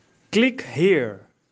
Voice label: "click here", English